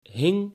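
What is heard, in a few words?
"hing", Dutch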